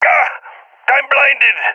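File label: blinded, voice, agents, balkan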